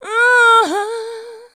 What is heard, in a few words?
"LINE", SHOUTS; Best Service; Hyper Dance